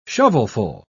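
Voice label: "shovelful", British English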